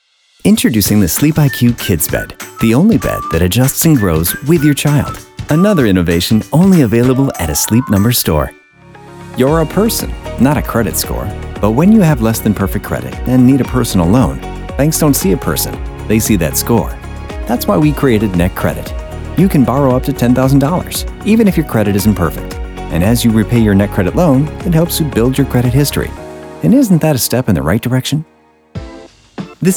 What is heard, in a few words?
Voiceover